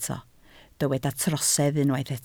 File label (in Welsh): "trosedd" Welsh